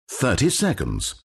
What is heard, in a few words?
announcer count battle; dlc stanleyparable